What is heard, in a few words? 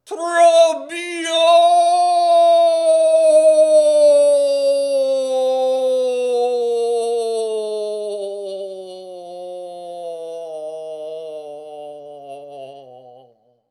death voice